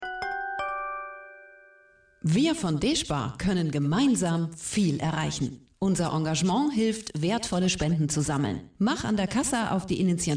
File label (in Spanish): ALEMAN FEMENINO, video comercial